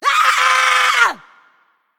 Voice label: Female Forsaken Play

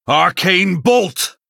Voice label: Male Blood Elf Attack

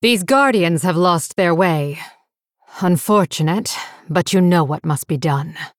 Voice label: Female Blood Elf

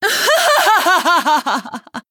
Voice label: Female Night Elf TRIGGER Pyroblast

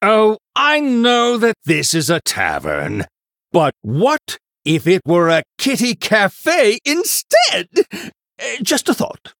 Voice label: Male Human Flavor

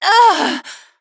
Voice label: Female Night Elf Death; Azshara

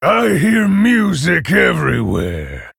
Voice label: Male Half Orc Emote GREETINGS; MIRROR

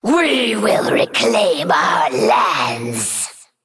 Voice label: Female Naga; Darkscale

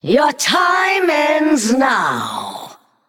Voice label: Female Naga Start

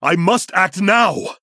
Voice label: Male Human Timer; Arthas

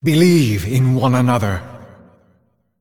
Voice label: Male Celestial Play

